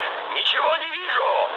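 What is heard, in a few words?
searchlite, mood med, Russian; War Thunder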